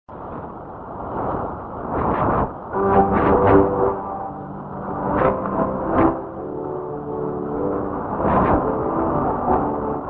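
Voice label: IS->ID (women); awr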